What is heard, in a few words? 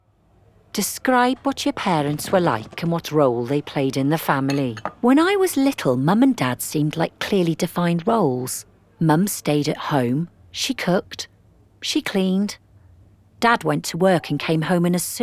female english welsh multiple accents drama narration